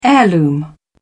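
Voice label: "heirloom", British English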